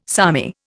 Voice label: "salmi", Indian